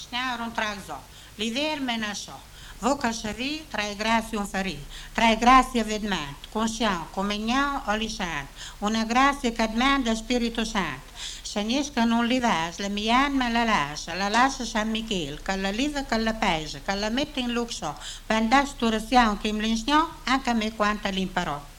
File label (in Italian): sgnor (orazione)